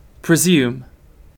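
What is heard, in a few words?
"presume", British English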